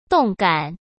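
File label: "动感", Mandarin Chinese; hsk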